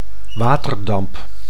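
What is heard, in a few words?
"waterdamp", Dutch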